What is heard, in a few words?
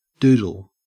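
"doodle", Australian English; pronunciations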